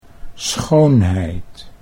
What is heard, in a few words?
"schoonheid", Dutch